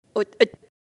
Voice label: Cochon - Vietnamien

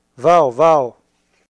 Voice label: Chien - Allemand

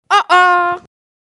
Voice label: Coq -Vietnamien; Cris des animaux; onomatopée

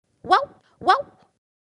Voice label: Chien - Vietnamien

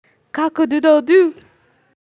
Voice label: Coq - Anglais

